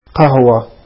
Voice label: coffee, arabic

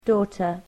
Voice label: "daughter", english

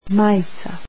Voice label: shirt, croatian

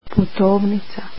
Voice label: "passport" croatian

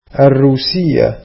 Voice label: Russian, arabic

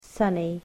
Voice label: "sunny", English

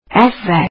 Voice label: "before", azeri

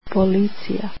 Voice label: police, croatian

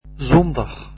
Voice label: Sunday, dutch

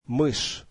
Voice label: mouse, russian; мышь [mishʲ]

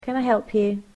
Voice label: "can I help you", English

